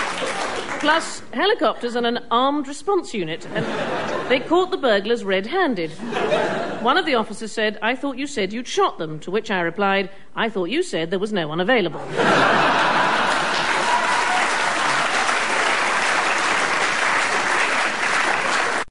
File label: police call; news quiz